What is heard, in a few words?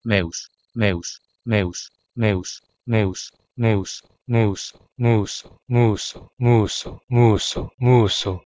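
Proto-Indo-European meus to Proto-Indo-European muso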